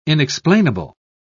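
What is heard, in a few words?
"inexplainable", British English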